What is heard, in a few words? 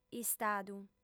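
"istadu", female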